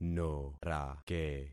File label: "norake", concat syllables; Affixing experiment